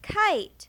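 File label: "kite", English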